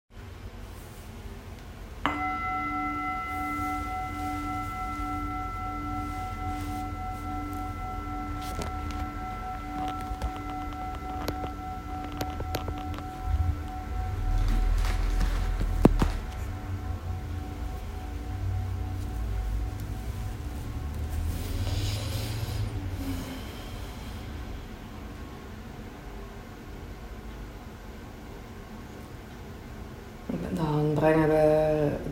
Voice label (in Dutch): Zitmeditatie Gedachten zijn geen feiten; mindfulness